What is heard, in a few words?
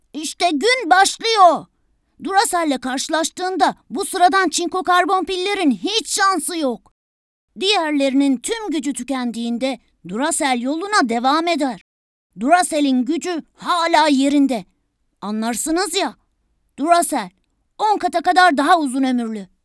turkish female generic